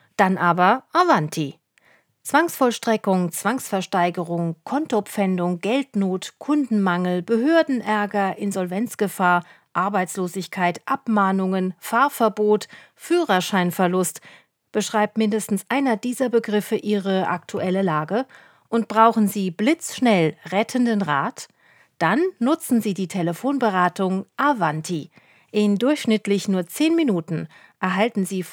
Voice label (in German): telefonische Beratung; avanti